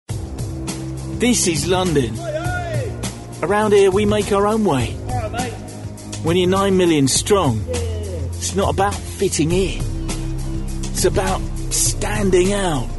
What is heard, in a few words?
Character